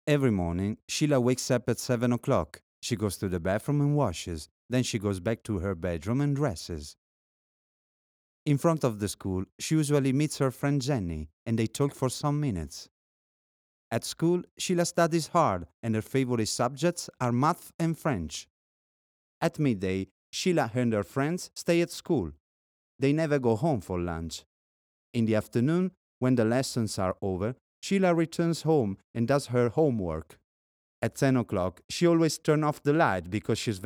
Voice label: Italian podcasts